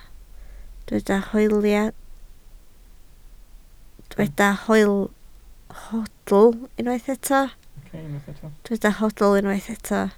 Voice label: "hoedl", Welsh